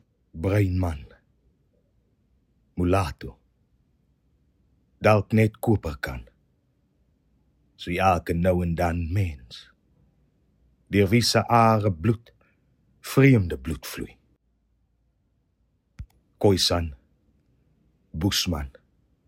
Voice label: Afrikaans Karoo dialect reading poem; Bruinman